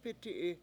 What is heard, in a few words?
"p Iti7i", Washo; it fell